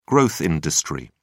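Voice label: "growth industry", British English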